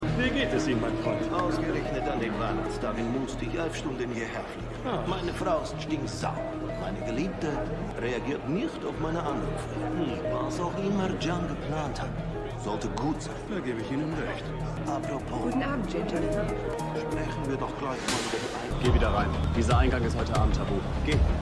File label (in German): Texanischer Oelmagnat Russischer Oligarch Kellnerin Wachmann, Stimmproben; Deus Kellnerin